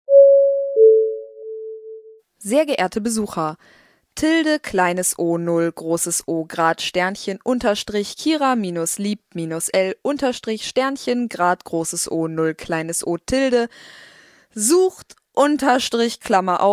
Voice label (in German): durchsage